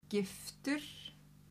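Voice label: "giftur", Icelandic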